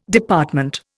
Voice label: "Department", Indian

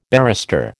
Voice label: "barrister", American

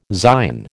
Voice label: "Zion", American

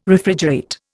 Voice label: "Refrigerate", Indian

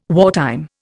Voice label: "wartime", Indian

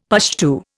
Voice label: "Pushtu", Indian; Dictionary

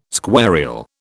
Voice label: "squarial", British

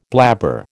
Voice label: "blabber", American

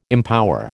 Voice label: "empower", American

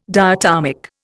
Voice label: "diatomic", Indian

